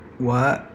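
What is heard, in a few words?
"what", American English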